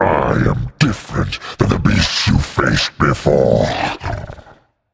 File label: taunt directed; cerberus; hercules; smite